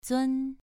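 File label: "zun2", Chinese pronunciation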